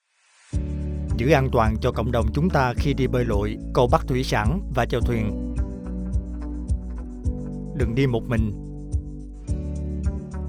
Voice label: Vietnamese Radio Ad